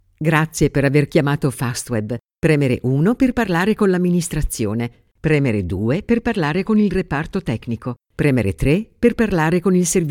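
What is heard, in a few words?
Italian IVR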